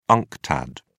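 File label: "unctad", British English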